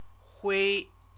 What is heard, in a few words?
"hui", Chinese